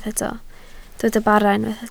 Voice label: "bara", Welsh